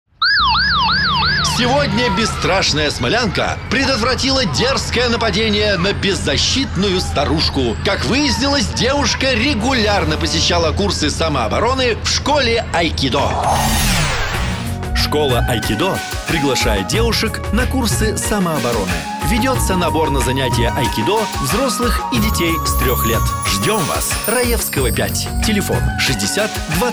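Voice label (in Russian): сложный информационный ролик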